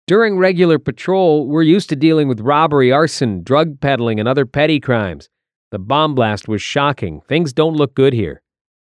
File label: Character, Voice Samples